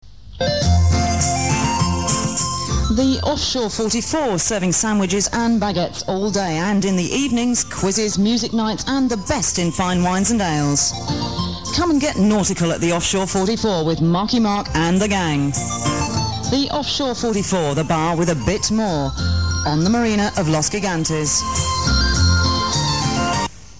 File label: ads; offshore 44